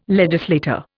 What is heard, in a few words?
"legislator", Indian